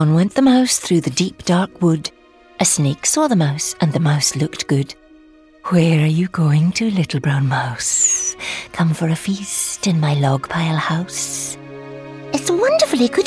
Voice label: Narration

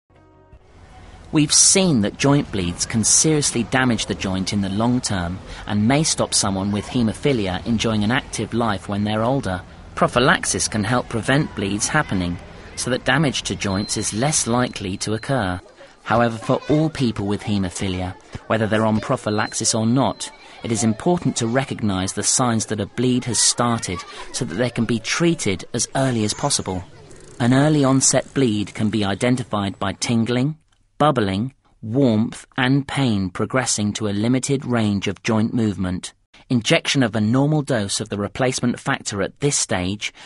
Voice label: Narration

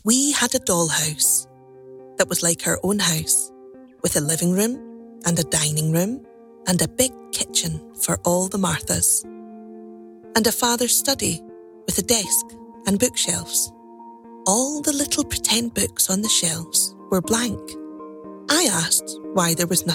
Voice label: Audiobook

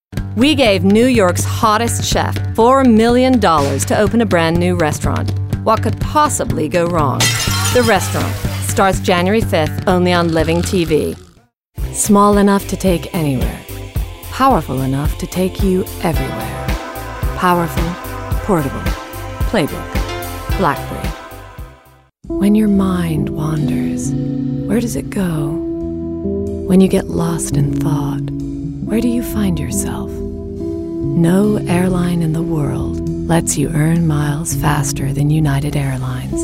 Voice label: commercial usa

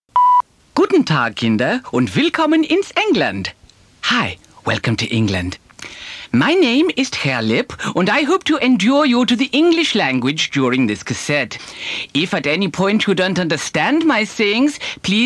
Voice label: Character Reel

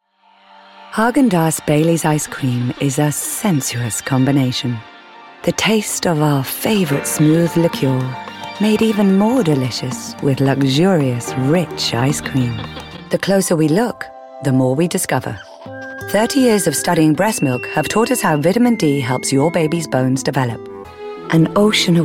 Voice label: Commercial

